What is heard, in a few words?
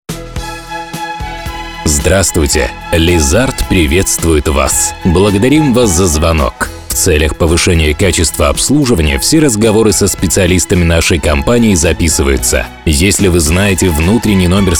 russian ivr